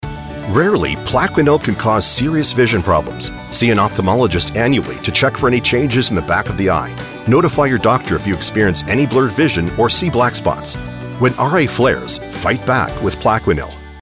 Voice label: Commercial; PLAQUENIL